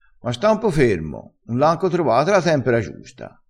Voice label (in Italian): "tempera", dialettale